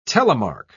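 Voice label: "telemark", British English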